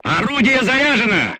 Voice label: voice message charged, crew tanks russian